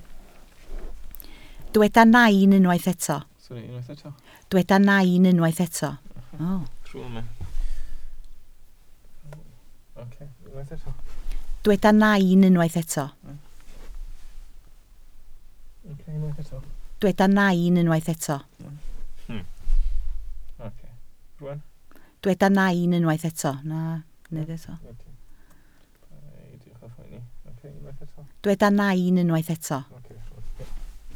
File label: "nain", Welsh